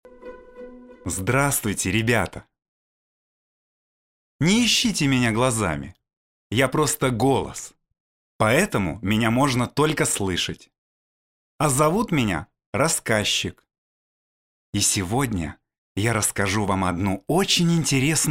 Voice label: rasskazchik

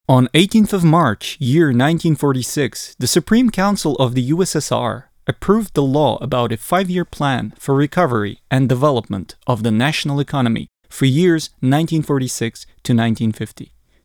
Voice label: english golos

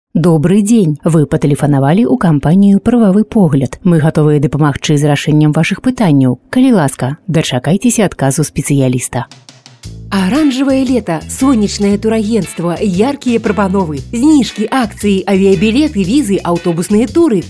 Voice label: lang belorusskij

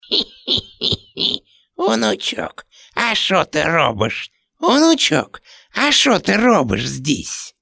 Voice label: ded starik starichok

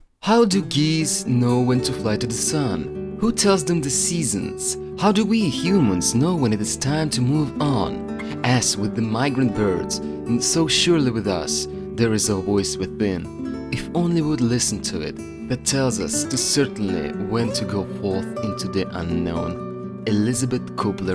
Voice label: lang anglijskij

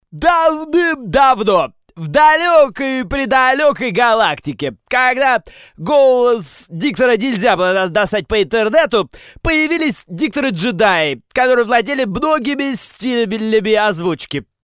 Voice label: perevodchik filmov; volodarskij

